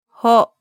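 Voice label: "ho", Japanese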